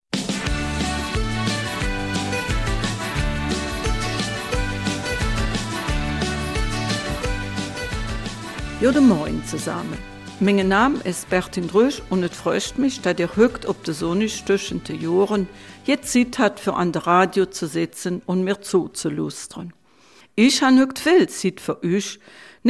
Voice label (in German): Eifeler Mundart; Rückblick auf das Jahr 2024